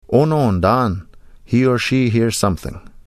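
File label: "Onoondaan", Ojibwe; He or she hears something; Nanabush Looses The Meat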